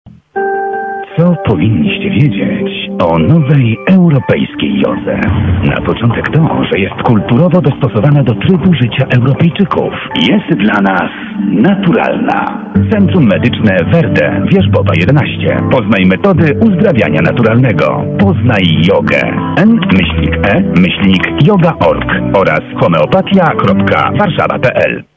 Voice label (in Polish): reklama